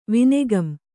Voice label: "ವಿನೆಗಂ", Kannada